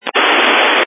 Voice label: Family Radio Service (FRS)